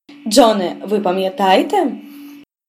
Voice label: dialogue, Ukrainian